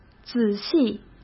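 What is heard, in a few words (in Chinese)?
"仔细", Mandarin Chinese; chinese flashcards; hsk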